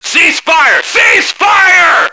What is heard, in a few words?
ceasefire, radio male